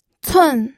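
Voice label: "cun", pinyin, fourth tone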